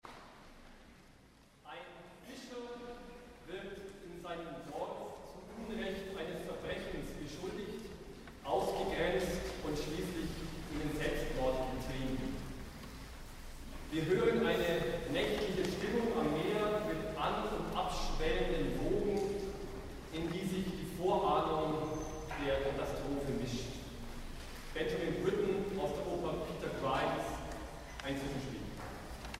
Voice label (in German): Ansage